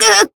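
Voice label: Voice damaged Japanese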